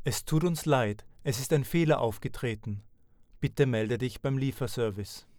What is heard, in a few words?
error, de